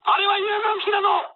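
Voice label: player friendly fire mood high, speech japanese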